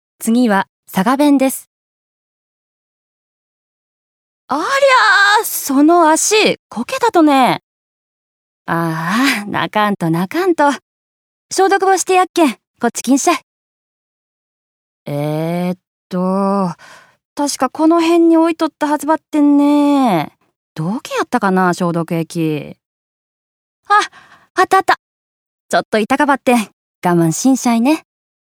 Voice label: 方言

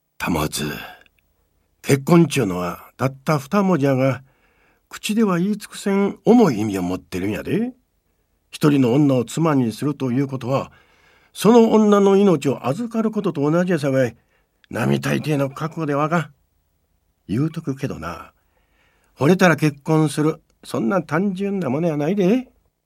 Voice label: 言い聞かせ

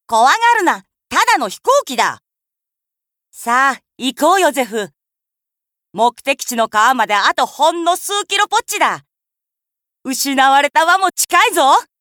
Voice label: 少年

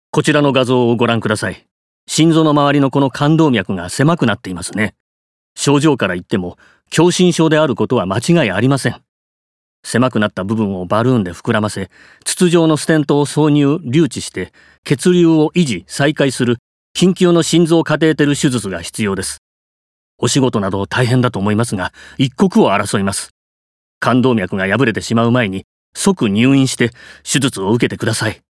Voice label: 医師40代

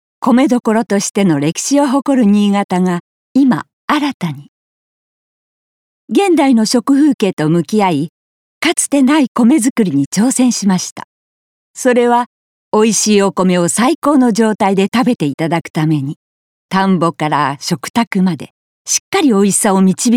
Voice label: CM落ち着き

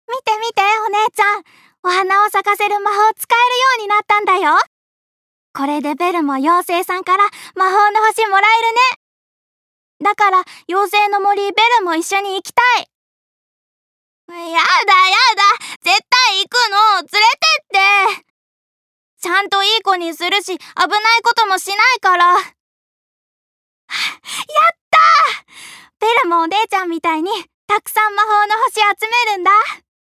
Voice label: 魔法使いの少女

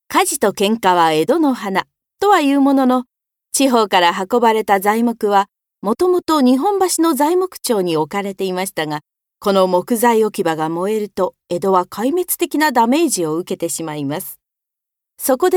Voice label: 解説ナレ

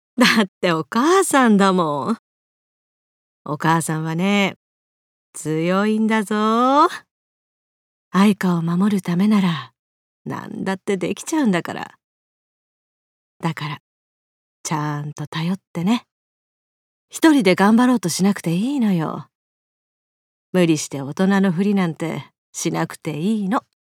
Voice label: 母親50代

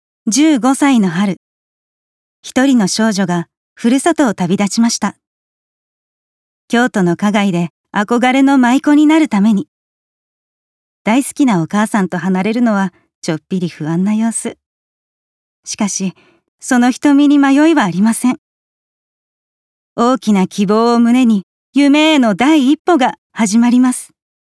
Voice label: 番組ナレーションナチュラル

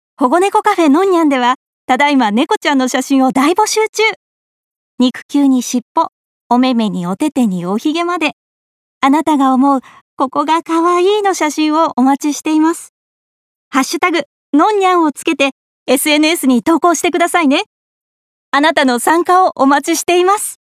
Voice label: 猫カフェ紹介ナレ

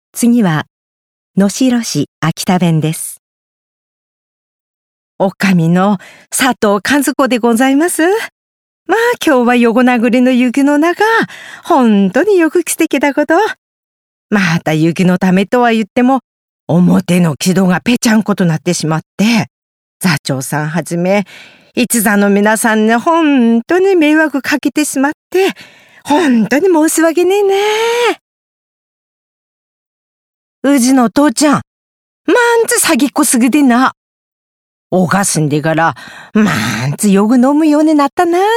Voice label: 方言

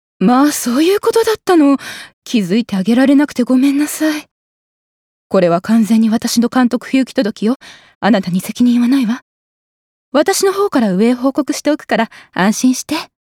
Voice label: 素直に非を認める上司

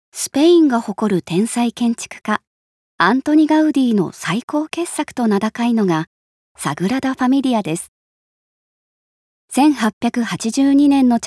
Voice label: 紀行ナレーション落ち着き

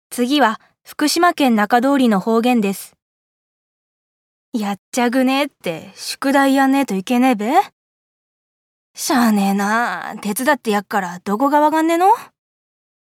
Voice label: 方言

